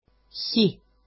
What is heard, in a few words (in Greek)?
"chi", Greek; χι; [ci]